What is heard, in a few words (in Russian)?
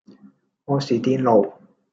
Голоса, Гонконгский